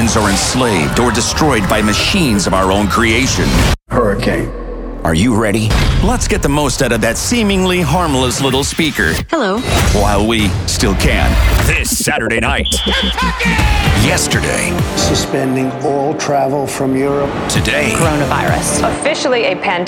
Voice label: News Talk